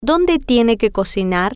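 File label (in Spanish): Donde Tiene Que Cocinar, spanish, female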